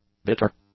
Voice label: "bitter", English; adjectives